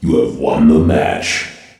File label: announcer "you win"